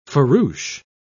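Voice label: "farouche", British English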